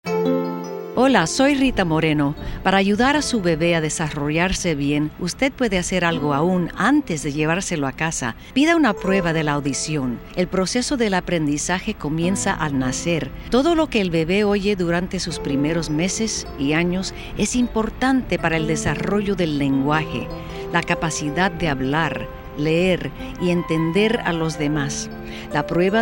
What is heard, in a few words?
Spanish Radio PSA; Rita Moreno; Infant Hearing Tests